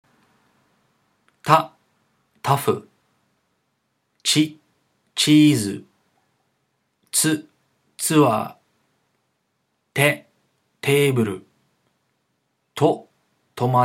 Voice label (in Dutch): Katakana uitspraak